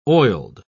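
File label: "oiled", British English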